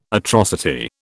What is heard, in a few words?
"atrocity", British